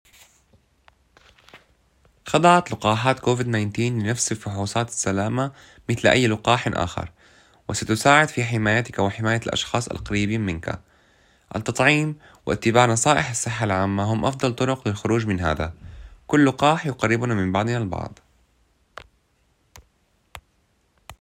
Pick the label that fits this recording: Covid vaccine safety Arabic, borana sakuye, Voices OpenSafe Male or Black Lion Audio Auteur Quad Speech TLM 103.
Covid vaccine safety Arabic